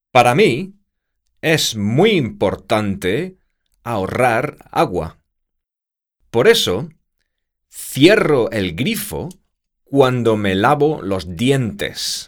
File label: spanish environment